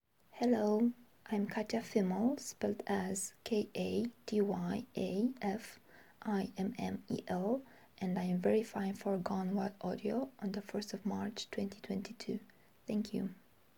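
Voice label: Verification request, female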